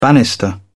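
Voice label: "banister", British English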